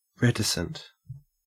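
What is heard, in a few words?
"reticent", Australian English; pronunciations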